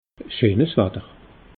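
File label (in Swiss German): "scheen watter", Alsatian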